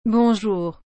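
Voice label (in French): "Bonjour", French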